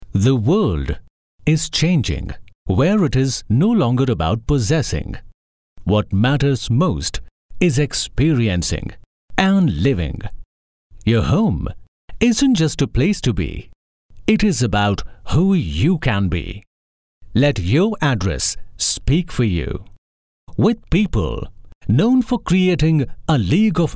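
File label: English M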